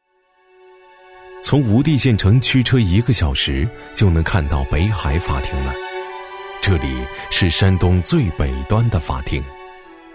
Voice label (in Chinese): 男 纪录片 自然讲述, 年轻浑厚 质感磁性; 小镇法官